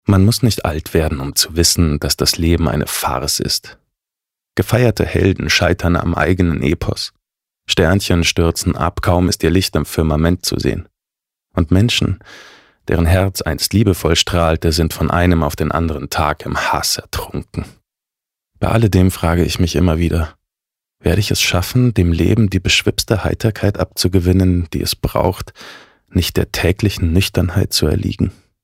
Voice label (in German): Weltschmerz Sanft